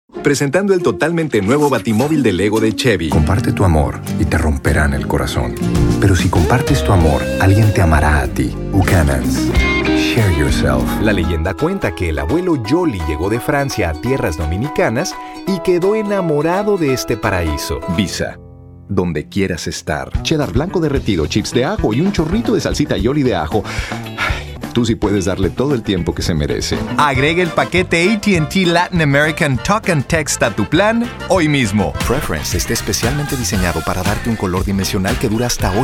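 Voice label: Male Voice Over Spanish Commercial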